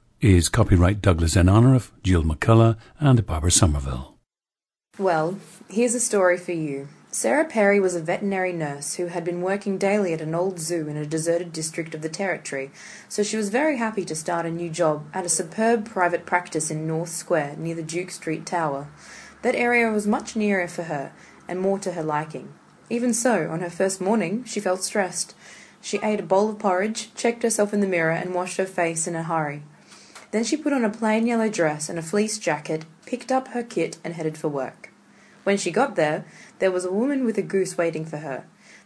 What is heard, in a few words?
australia